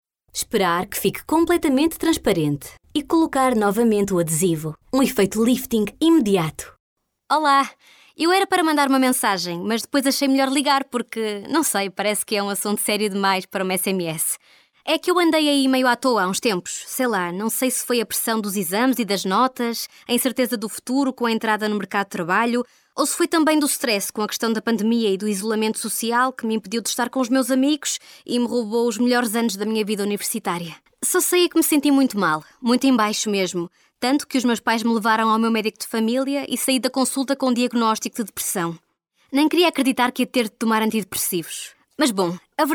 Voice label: female, portuguese voices